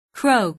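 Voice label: "croak", English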